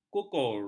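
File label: "kukur", Malay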